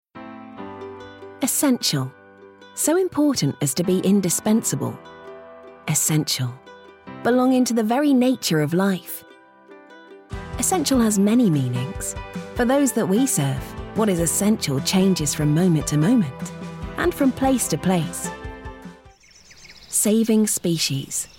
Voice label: english british corporate videos